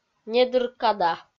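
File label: "недыр када", Komi, female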